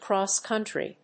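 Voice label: "cross-country", English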